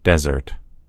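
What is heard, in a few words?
"desert", American English; gcompris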